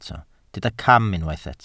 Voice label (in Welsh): "cam", Welsh